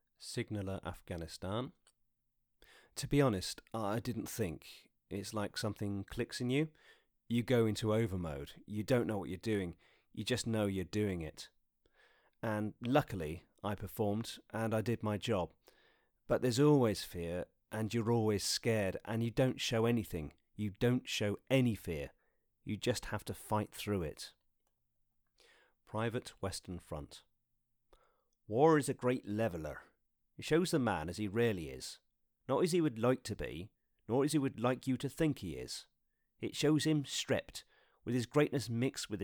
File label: museum soldier voice